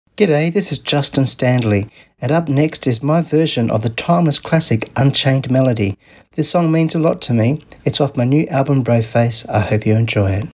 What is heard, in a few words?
radio intro